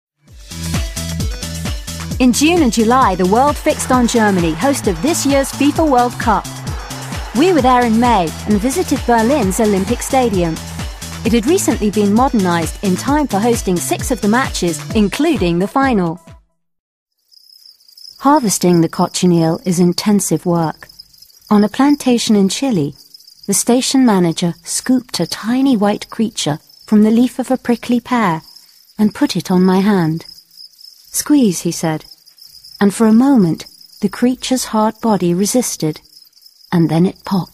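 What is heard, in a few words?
Narration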